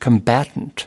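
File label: "combatant", American English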